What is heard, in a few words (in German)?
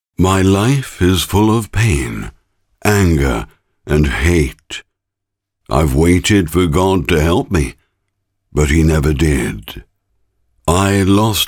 brainworx bx console voiceover 1 instanz